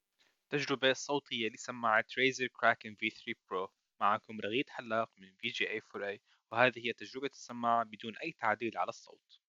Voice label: Razer Kraken V3 Pro Mic Test